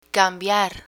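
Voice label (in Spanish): "cambiar", Spanish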